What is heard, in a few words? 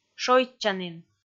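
"шойччанін", Komi, female; kpv audio dict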